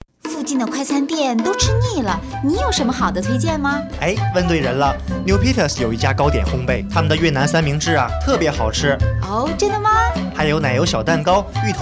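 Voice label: Chinese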